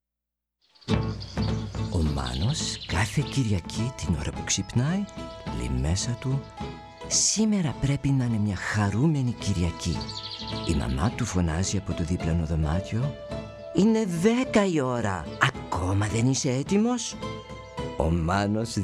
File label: greek